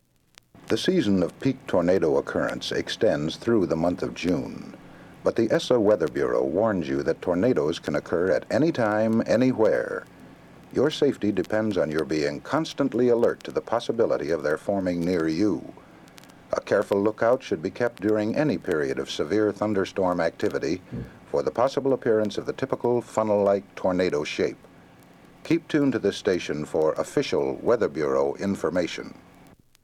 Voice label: tornado announcement safety; ESSA